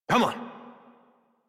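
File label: horse start adult, English; Warframe; Duviri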